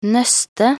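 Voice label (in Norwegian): "nøste", Norwegian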